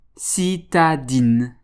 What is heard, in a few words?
"citadine", French